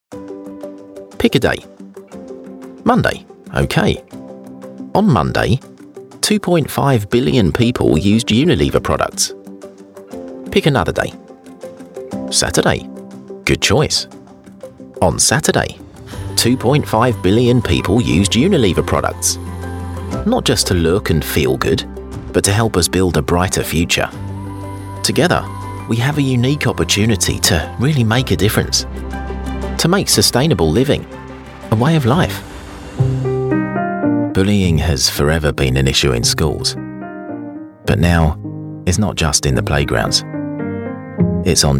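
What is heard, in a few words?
narration, Kent Accented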